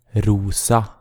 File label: "Rosa", Norwegian